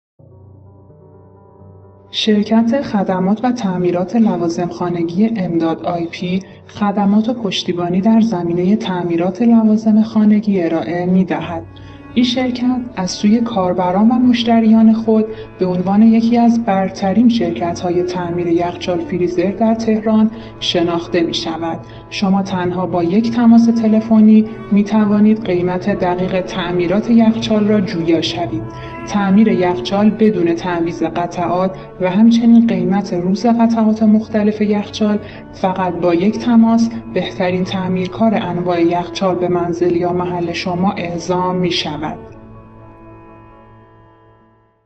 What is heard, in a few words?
Refrigerator and freezer repair at home in Tehran information voice